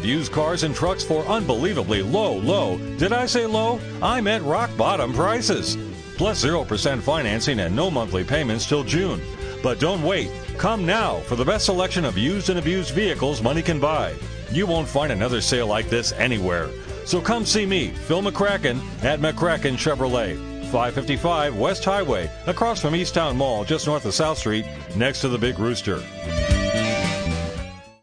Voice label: voice over narration; Radio Spot Auto Dealer; McKracken Chev